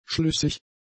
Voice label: "schlüssig", German; adjectives

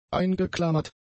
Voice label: "eingeklammert", German; adjectives

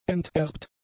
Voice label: "enterbt", German; adjectives

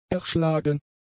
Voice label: "erschlagen", German; adjectives

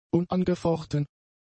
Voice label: "unangefochten", German; adjectives

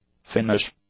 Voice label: "Finnish", english; adjectives

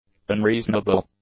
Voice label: "unreasonable", english; adjectives

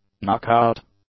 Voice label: "knockout", English; nouns

